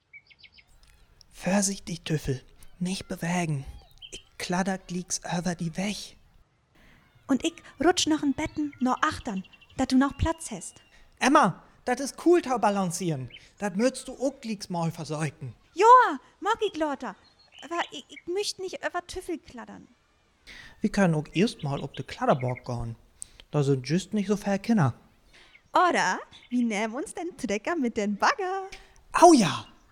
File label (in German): Dialog, niederdeutsch